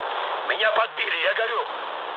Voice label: on fire, mood low, speech ru; War Thunder